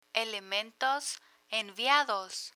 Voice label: "elementos enviados", Spanish